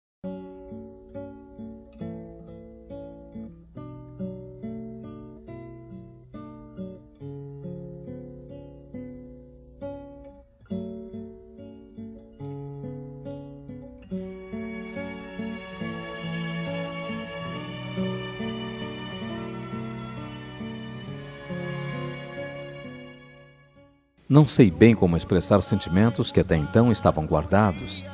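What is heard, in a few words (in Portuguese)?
ROMANTICA SUAVE male, telemensagem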